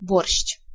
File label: "borshch", Ukrainian